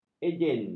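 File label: "ejen", Malay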